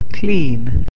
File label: "clean", English